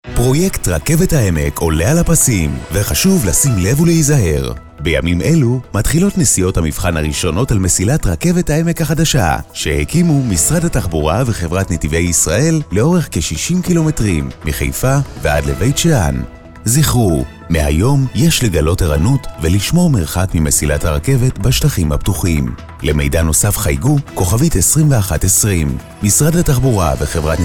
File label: radio; rakevet haemek